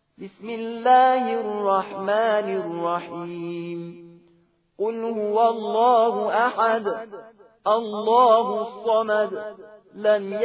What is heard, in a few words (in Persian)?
ترتیل; سوره اخلاص